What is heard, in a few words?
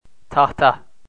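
"tahta", Turkish, male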